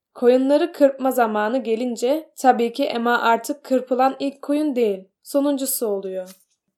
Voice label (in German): türkisch